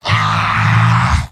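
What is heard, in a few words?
jeers, robot; heavy; mvm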